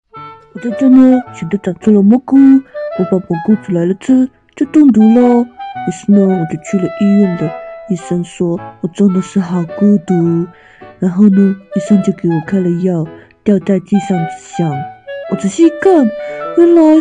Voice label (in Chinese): 模仿; 蜡笔小新